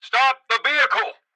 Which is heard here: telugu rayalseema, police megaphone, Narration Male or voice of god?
police megaphone